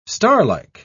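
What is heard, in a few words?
"starlike", British English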